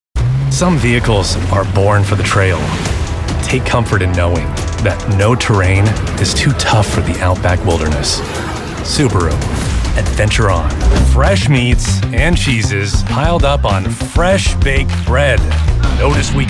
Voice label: commercial